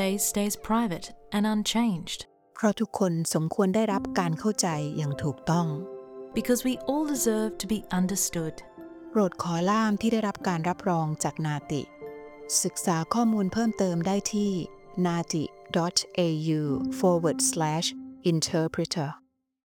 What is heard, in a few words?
Thai